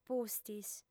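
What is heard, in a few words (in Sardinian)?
"pustis", Sardinian, female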